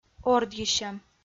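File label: "ордйысьӧм", Komi, female; kpv; audio dict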